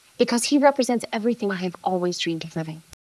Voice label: Voice Acting es

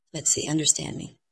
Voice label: Dubbing cloned es F2

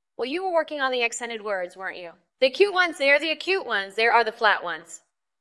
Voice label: VoiceActing es F

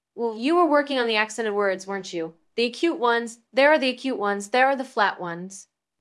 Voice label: Voice Acting es

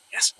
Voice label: Voice Acting es M

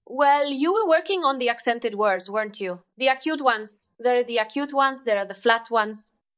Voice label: Voice Acting es F

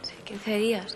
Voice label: Voice Acting es F

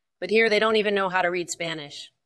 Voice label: VoiceActing es

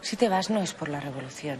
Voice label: Voice Acting es F

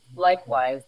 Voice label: Voice Acting, es, F0

